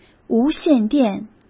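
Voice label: "无线电", Mandarin Chinese; hsk; chinese-flashcards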